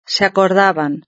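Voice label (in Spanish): "se acordaban", Spanish; verb-conjugator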